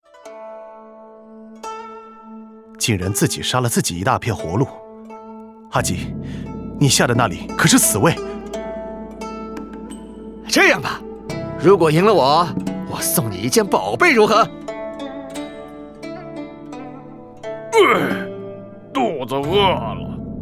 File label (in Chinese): 侠客-男